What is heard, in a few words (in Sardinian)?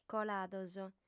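"colados", female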